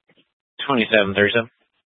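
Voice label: Sheriff Dispatch, Scanner audio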